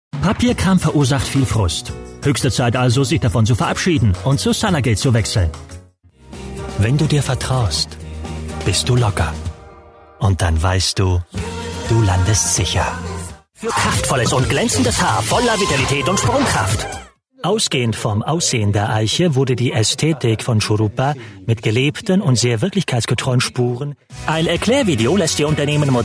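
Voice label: German, male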